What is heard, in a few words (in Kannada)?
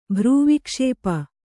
"ಭ್ರೂವಿಕ್ಷೇಪ", Kannada